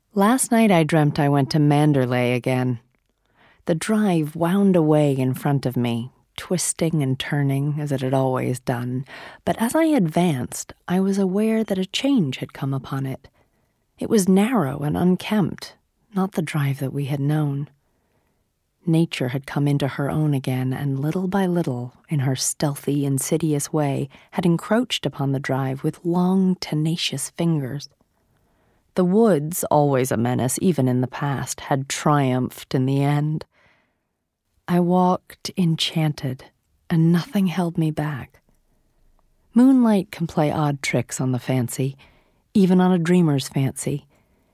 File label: Audiobook Narration